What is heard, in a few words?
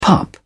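"pup", British English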